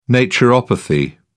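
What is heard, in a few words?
"naturopathy", British English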